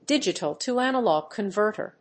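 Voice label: "Digital-to-Analog Converter", English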